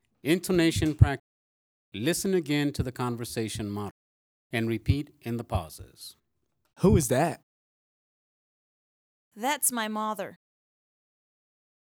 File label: Conversation Time Intonation Practice